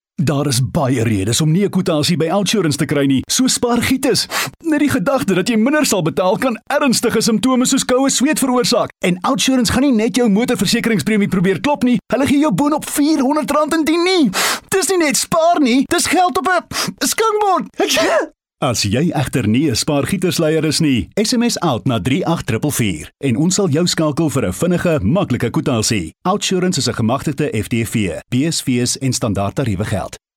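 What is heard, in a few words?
Afrikaans, male, voice over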